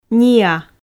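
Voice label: "ниа", Komi, female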